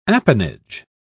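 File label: "appanage", British English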